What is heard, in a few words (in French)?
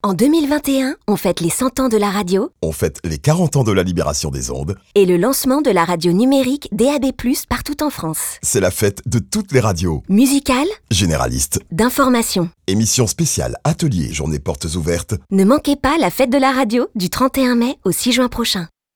SPOT; FETE RADIO ACAP 30 SEC